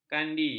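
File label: "kandi", Malay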